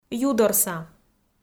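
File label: "юдорса", Komi, female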